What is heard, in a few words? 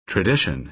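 "tradition", American English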